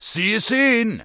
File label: Dwarf Male Standard NPC Farewell